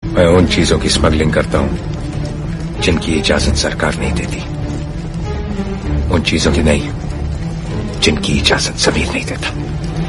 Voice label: Hindi Dialogue; Ajay Devgan